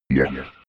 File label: robot voice yes fx